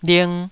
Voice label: "ling2", Taiwanese syllable

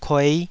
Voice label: "kuei", Taiwanese

